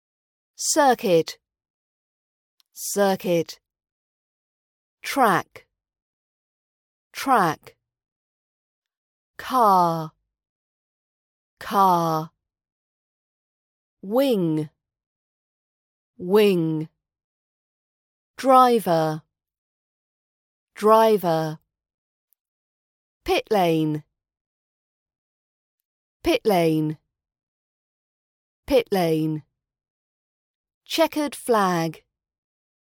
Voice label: formula 1 vocab rp british accent pronunciation practice